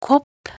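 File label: "kopp", Norwegian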